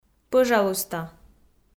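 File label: "пӧжалуйста", Komi, female; kpv audio dict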